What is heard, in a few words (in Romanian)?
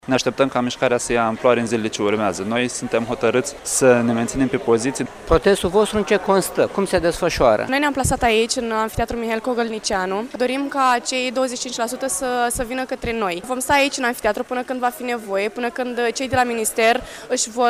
vox pop studenti